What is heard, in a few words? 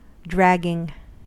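"dragging", US English; pronunciations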